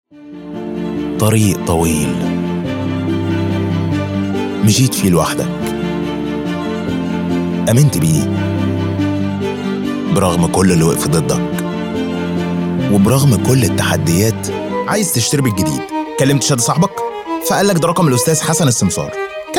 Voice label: Egyptian Commercial